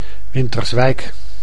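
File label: "Winterswijk", Dutch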